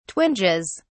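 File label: "twinges", English, British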